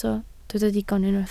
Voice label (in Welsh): "digon" Welsh